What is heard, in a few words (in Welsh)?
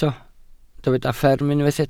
"fferm" Welsh